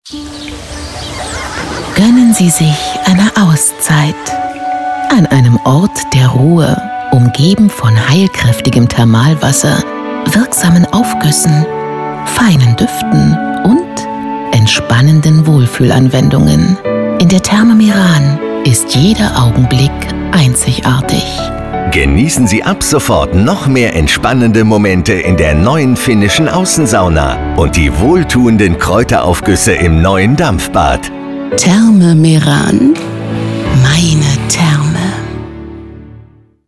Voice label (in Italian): Spot istituzionale DE; SAUNA 2013